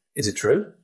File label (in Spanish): Voice Acting es M